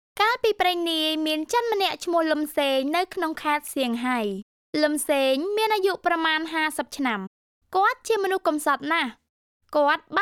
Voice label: E Learning; voiceover-cambodia